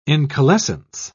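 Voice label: "incalescence", British English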